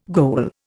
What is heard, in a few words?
"goal", Indian